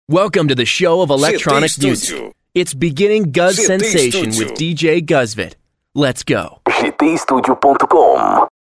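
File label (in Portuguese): Padrão Inglês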